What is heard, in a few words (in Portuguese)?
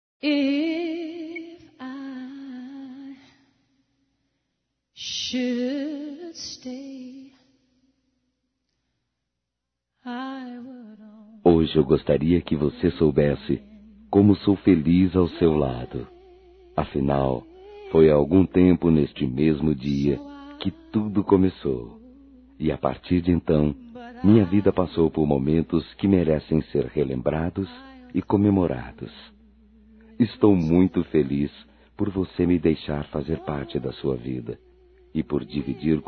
Aniversario Namoro ou Casamento Masculino